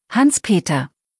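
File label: "Hans-Peter", German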